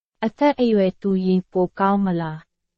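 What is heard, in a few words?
Burmese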